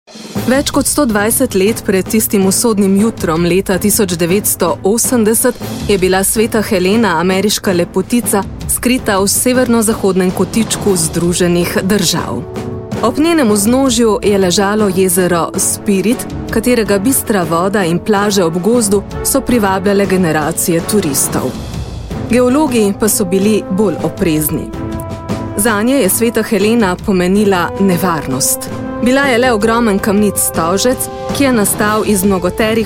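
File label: slovenian female commercial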